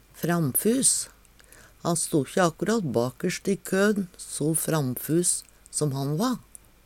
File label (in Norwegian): "framfus", Numedalsmål; Han sto`kje akkarat bakerst i kø`n, so framfus som han va.; Adjektiv